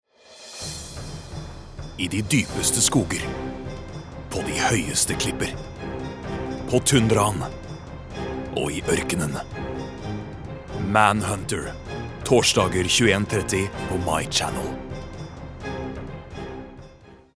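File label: Norwegian dramatic, male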